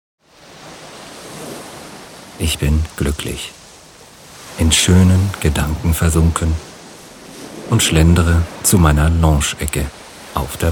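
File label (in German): Frühstück am Meer männlich, Phantasiereisen; Orte der Entspannung; Tiefenentspannung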